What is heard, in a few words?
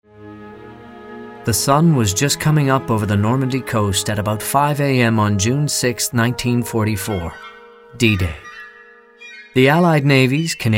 narration